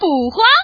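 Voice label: "bu hua", Chinese, female; 女; 欢乐麻将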